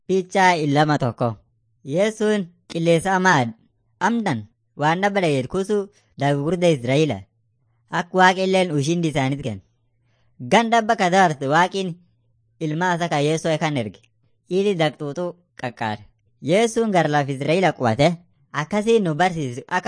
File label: afan munyoyaya